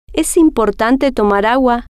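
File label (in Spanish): es Importante Tomar Agua, spanish, female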